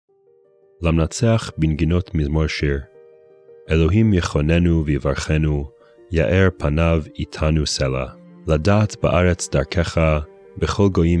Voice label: poetry and prayer psalm hebrew